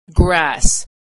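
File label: "grass", American English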